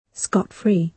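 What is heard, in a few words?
"scot-free", British English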